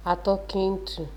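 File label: "atokintu", Nigerian Pidgin, female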